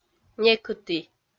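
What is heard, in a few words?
"некыті", Komi, female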